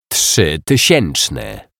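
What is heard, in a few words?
"trzytysieczny", Polish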